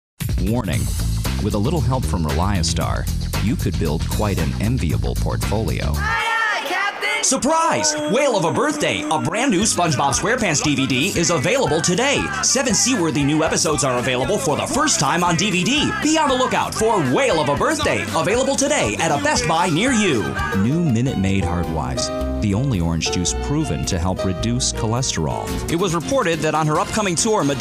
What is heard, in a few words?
Commercial Male